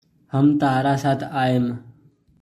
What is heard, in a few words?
Hum tahra saath aayeim., Bhojpuri